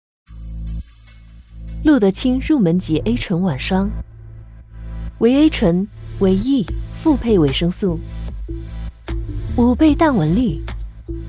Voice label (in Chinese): 女 广告 时尚 知性 护肤品; 露得清